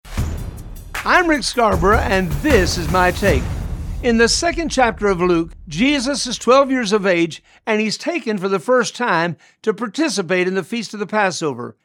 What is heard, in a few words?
Radio Spot